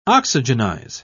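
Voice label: "oxygenize", British English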